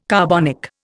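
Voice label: "carbonic", Indian English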